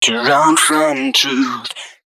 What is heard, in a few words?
male d#130, Dance Ultra Voice hooklines